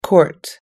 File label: "court", us english